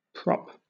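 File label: "prop", British English; pronunciations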